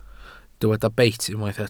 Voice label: "beit", Welsh